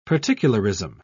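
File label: "particularism", British English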